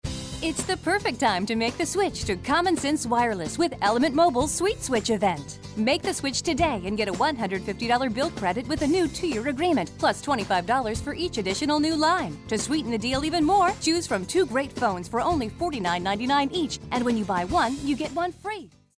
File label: upbeat